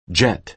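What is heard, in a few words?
"jet", American English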